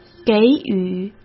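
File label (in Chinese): "给予", Mandarin Chinese; hsk; chinese-flashcards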